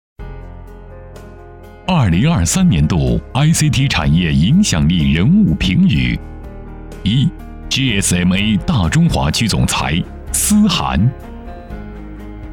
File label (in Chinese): 男 颁奖; 年度影响力